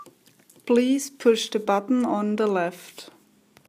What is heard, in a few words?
Button Left Woman